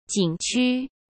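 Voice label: "景区", Mandarin Chinese; chinese-flashcards; hsk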